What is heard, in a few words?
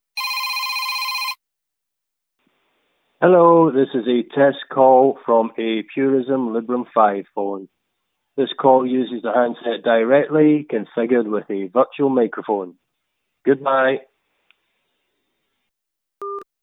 Test Call, Handest direct with virtual mic; Librem 5